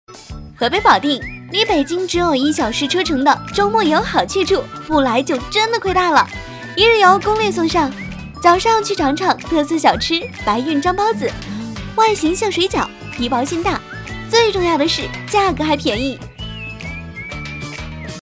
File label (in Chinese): 抖音欢快甜美美食探店河北保定